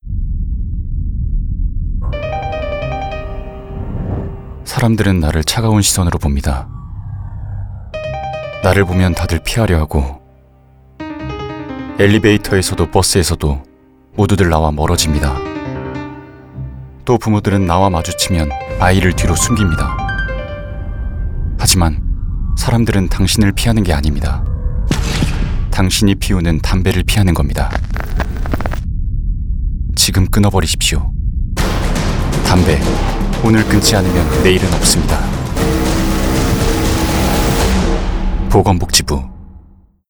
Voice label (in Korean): CM 다크하고 무거운